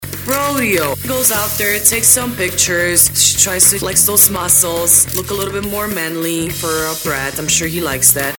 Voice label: manly